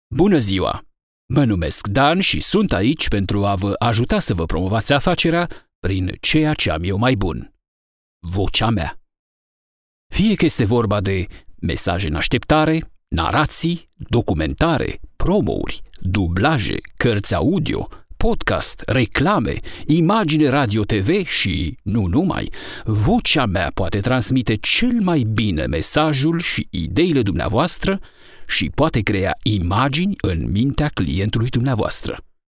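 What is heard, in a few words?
Romanian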